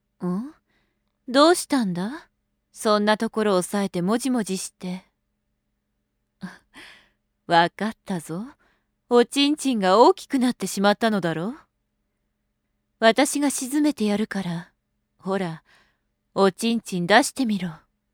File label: ane cool, adult voice; freezing